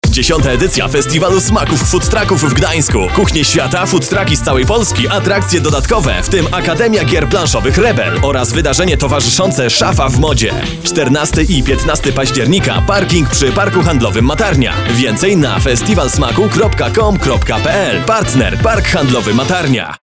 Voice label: commercial